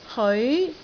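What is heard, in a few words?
"heoi2", Cantonese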